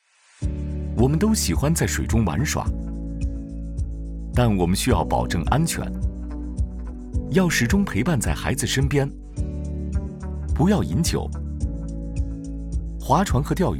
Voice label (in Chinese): Mandarin Radio Ad